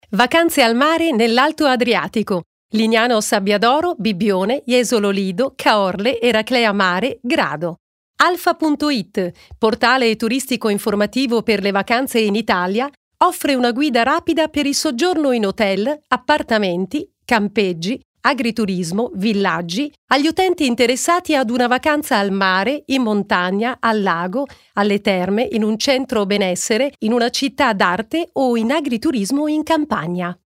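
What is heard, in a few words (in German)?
Italian, Kommentar Neutral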